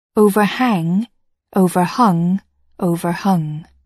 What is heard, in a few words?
"overhang", English